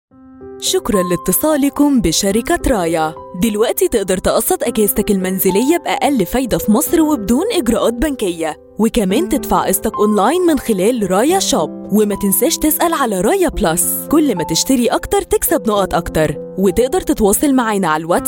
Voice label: IVR; Raya